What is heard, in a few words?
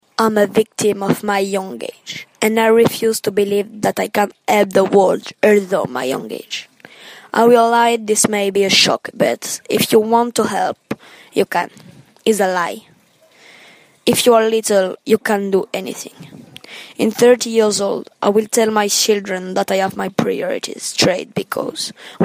reverse poem english